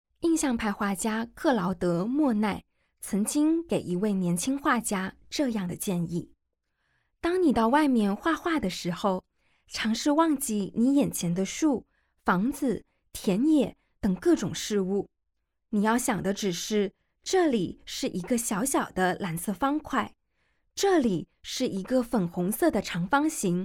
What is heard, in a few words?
Mandarin, audio guide; 鸢尾花; 克劳德．莫奈（1840–1926年）; 约1914–1917年; 布面油画，200.7 x 149.9厘米; 英国国家美术馆; 香港故宫文化博物馆; 从波提切利到梵高：英国国家美术馆珍藏展